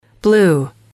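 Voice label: "blue", English, female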